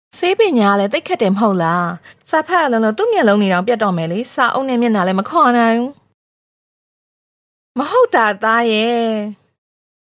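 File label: F Burmese Narration, female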